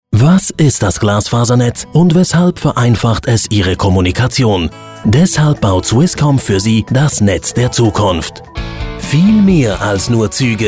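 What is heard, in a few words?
Swiss German, male, explainer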